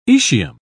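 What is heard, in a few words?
"aecium", British English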